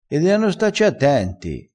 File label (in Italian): "deano", crespinese, vocabolario dialettale